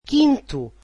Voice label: "fifth", portuguese